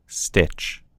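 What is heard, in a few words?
"stitch", American English; gcompris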